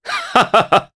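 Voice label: Vox Happy Japanese; Nicx; kings raid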